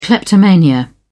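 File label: "kleptomania", British English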